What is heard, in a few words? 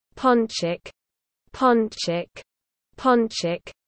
"Ponchik", russian dog names